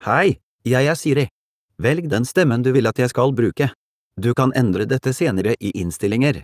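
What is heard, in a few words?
Norwegian Bokmål, Norway; iOS 26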